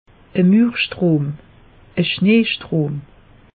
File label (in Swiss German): "muerstrom", alsace; meteo